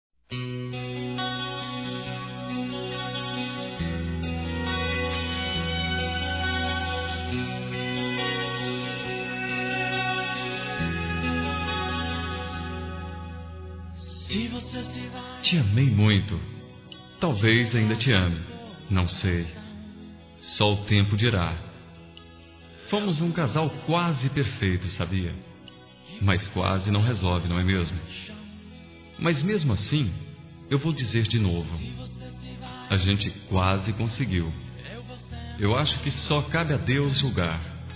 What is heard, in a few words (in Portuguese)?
Ex Esposa Masculino, Telemensagens